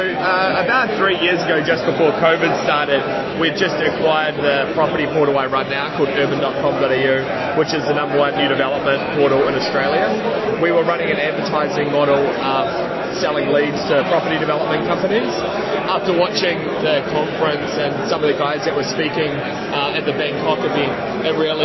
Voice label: testimonial